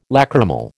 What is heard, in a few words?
"lachrymal", American